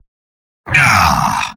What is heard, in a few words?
negative vocalization; Spy; mvm